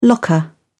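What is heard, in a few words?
"locker", English, British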